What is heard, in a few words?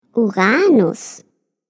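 "Uranus", German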